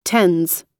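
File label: "tends", US English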